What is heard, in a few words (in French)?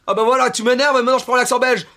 accent belge